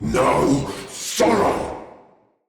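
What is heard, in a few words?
Attack Heavy, Battle Barks, Dialog, English; Nimrod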